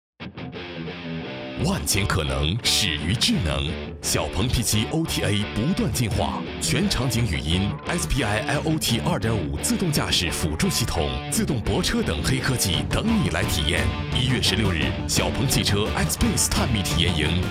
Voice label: 男 国 大音; 小鹏汽车

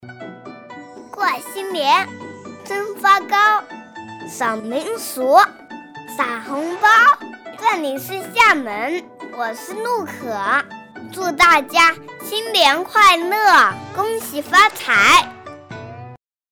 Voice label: 女 男真童; 真童配音

